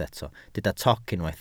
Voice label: "toc", Welsh

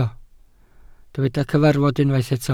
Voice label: "cyfarfod" Welsh

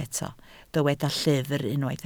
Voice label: "llyfr", Welsh

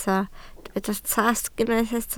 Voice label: "tasg", Welsh

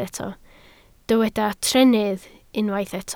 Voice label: "trennydd", Welsh

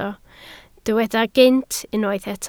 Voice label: "gynt", Welsh

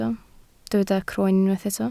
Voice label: "croen", Welsh

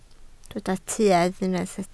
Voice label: "tuedd", Welsh

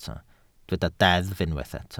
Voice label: "deddf" Welsh

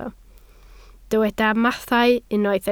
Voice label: "mathau", Welsh

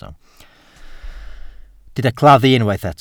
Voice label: "claddu", Welsh

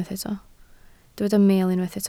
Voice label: "meel", Welsh

